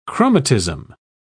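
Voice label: "chromatism", British English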